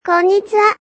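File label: "Konnichiha", Japanese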